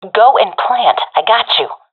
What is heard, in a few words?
friend plant bomb, professional fem; vo; Counter Strike Model Pack; CS:GO